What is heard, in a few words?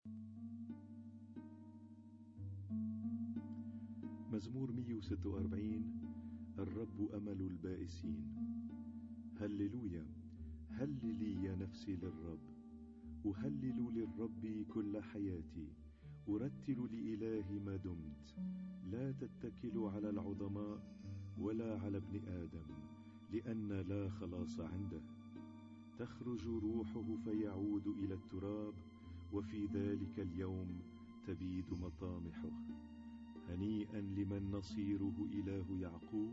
Arabic Psalm Zaboor